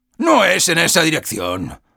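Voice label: Sniper cart going back offense soft, Spanish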